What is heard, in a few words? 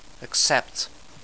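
"accept", English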